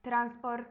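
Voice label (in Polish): "transport", Polish; multimedia glossaries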